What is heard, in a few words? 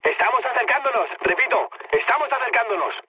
near waypoint, mood high, speech; War Thunder